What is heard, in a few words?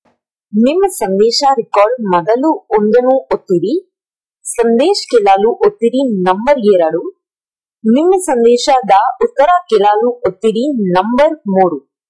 Kannada Stream